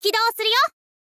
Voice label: "kidou suru yo", info girl voice

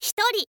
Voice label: "hitori", info girl voice

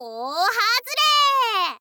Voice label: "oohazure", info girl voice

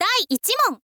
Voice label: "daiichimon", girl; voice

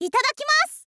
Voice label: "itadakimasu", girl

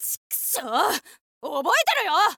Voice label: thief boy lose, voice

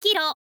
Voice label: "kiro", info girl voice

